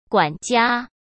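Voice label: "管家", Mandarin Chinese; chinese flashcards; hsk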